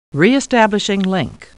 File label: Computer Voice; Star Trek